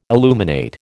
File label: "illuminate", American